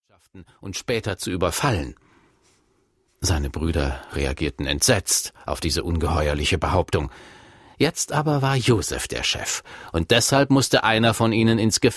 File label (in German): Erzaehler